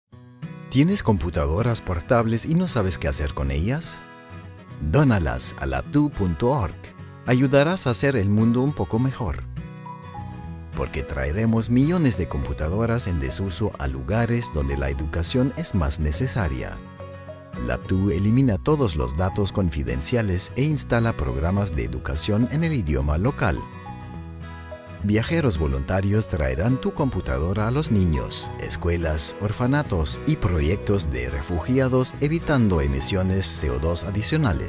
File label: video explicativo explanatory video (Spanish); Labdoo